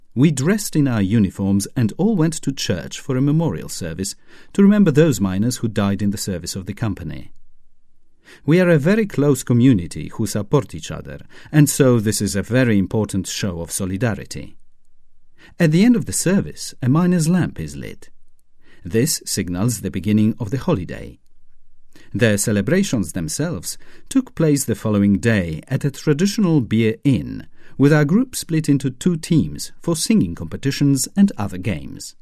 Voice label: English, Eastern European, Polish, Male, 30s-40s

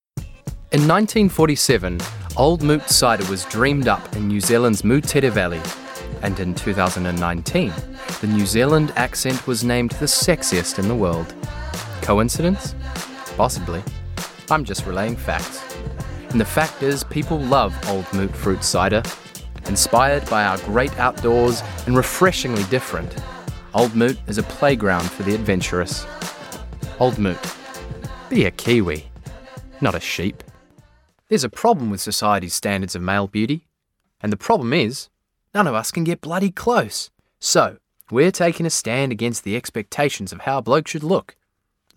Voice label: Commercials, regional accents

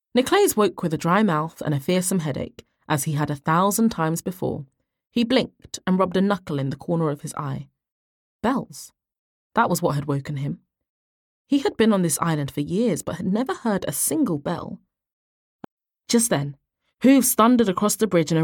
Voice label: Audiobook

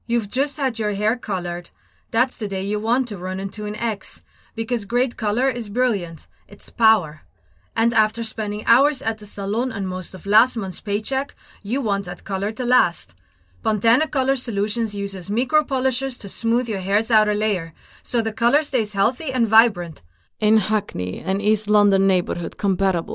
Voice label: English

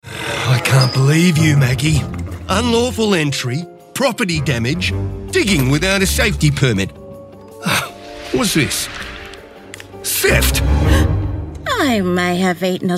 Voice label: Australian Animation

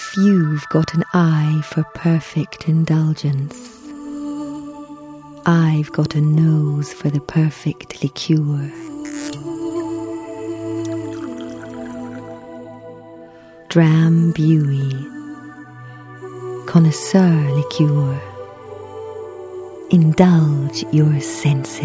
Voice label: Scottish

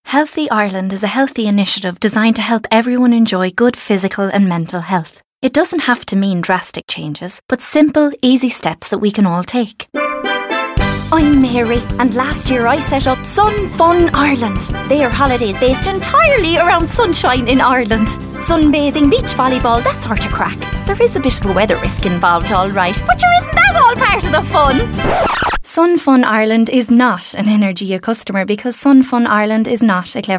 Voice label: Commercial Voice

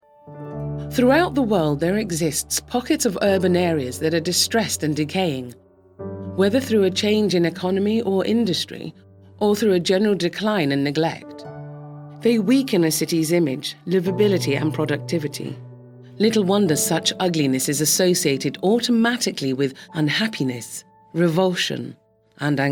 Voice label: RP narration

